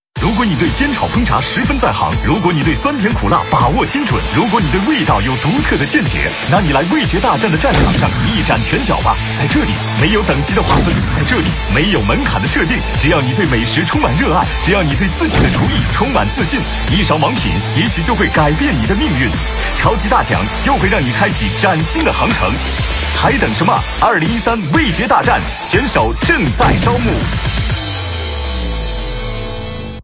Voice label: chinese mandarin tv shows